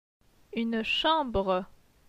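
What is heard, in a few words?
"Une chambre", French; phonetique; les voyelles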